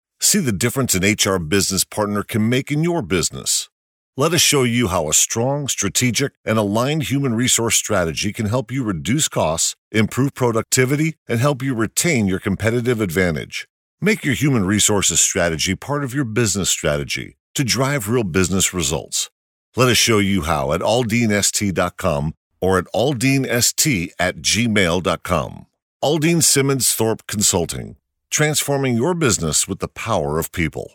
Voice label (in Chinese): 外籍男 网站介绍